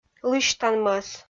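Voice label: "лысьтан мӧс" Komi, female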